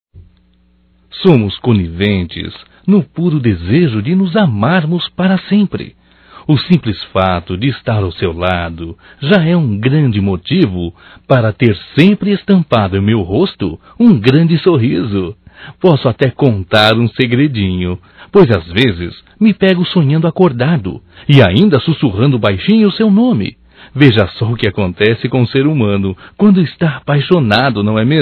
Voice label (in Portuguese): Romântico Masculino, Telemensagens Românticas